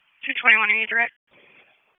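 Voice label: Raleigh Police Northeast District Dispatch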